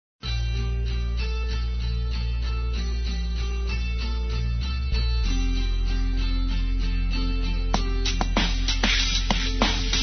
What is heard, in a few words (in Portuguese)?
Masculino, Telemensagens, Românticas; Quero Te Beijar; Telemensagens 2017